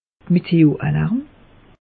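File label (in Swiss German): "meteo", Alsatian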